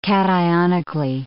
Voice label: "cationically", English